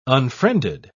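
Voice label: "unfriended", English, uk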